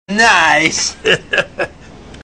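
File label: "Nice", male; Borat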